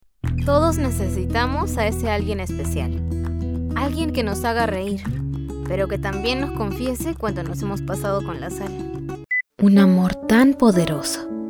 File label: VOICE OVER